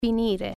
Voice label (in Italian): "turnout", Italian